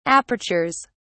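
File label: "apertures", British English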